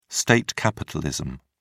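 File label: "state capitalism", British English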